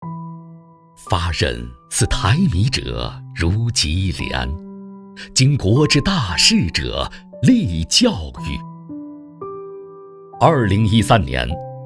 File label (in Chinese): 男, 学校 宣传